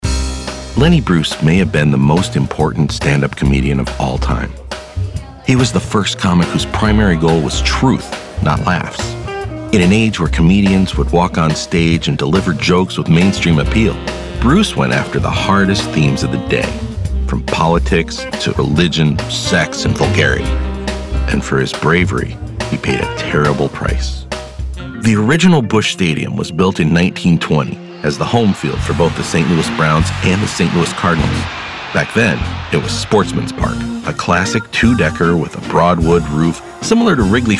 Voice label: narration; TaDa